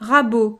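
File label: "rabot", French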